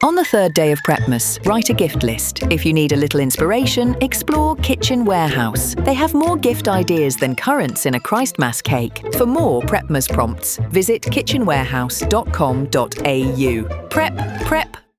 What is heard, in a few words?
Radio